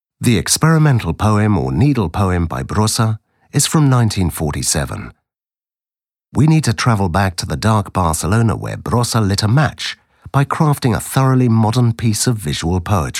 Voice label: audioguia english